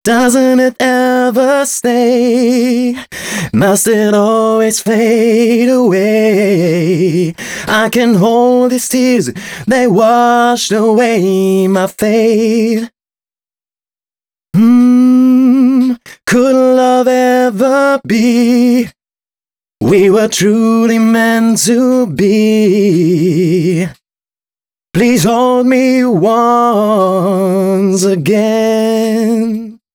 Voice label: male, Dance Ultra Voice hooklines; Mutekki Media; Dance Ultra Voice VOL-1